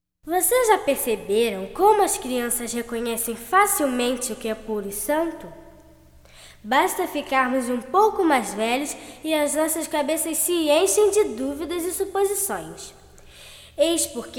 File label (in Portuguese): Narração